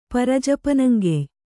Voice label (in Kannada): "ಪರಜಪನಂಗೆಯ್", Kannada